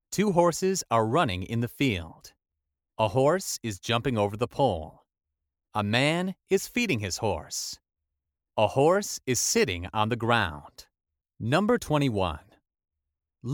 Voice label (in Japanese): アメリカ人男性 サンプル